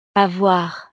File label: "avoir", francés